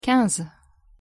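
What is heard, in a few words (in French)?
"quinze" French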